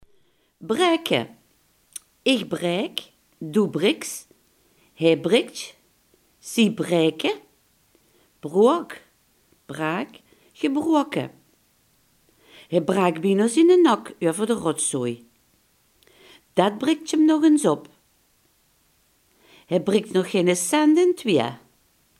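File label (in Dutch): "breken" Limburgish dialect word; breken, braeke (ich braek, doe briks, hae briktj, zie braeke, broeak/braak, gebroeake); Hae braak bienao ziene nak uuever de rotz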